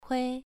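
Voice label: "hui", Mandarin Chinese, second tone, pronunciation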